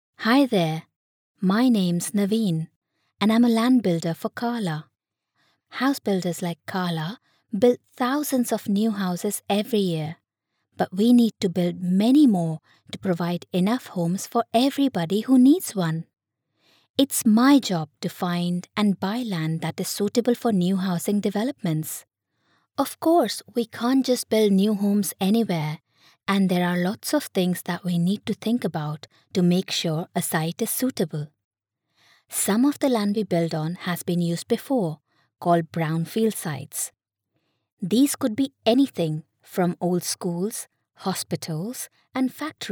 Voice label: Indian